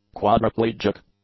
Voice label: "quadriplegic", English; adjectives